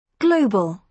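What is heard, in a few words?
"global", British English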